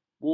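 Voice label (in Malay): "bubur", Malay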